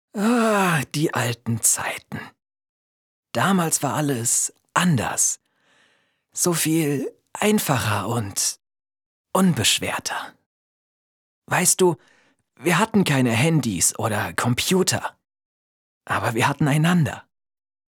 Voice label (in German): ALTER MANN MARIA MONOLOG